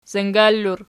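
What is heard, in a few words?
"zangal" plural, Archi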